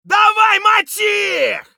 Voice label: scav battlecry; eft